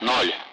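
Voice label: Speech Sound Russian Common Allied Flight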